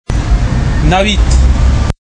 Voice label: farsi